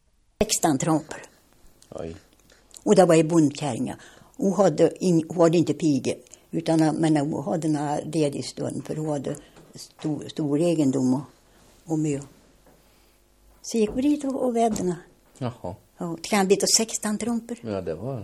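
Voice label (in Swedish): Gunnarskog Värmland, Svenska sagor, dialekter; Folkminnen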